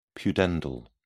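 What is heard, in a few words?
"pudendal", British English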